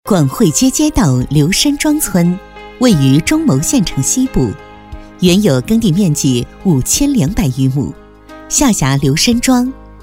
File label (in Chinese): 女 专题 解说, 广惠街街道刘申庄村